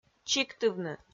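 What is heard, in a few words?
"чиктывны", Komi, female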